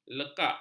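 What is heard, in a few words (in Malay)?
"lekak", Malay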